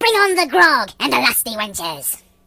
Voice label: Flawless, Pirate voice; hedgewars

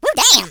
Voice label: Ooff, Hill Billy; hedgewars

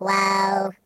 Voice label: "Whoopsee", Robot

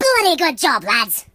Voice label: "Victory", Pirate

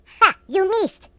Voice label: Missed, Russian; hedgewars

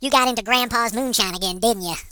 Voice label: "Watch it", HillBilly

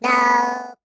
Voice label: "Fly away", Robot; hedgewars

